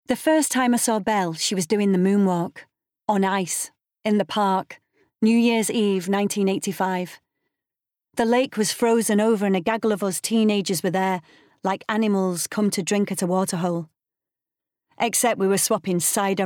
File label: Bookread; Fear of Falling by Cath Staincliffe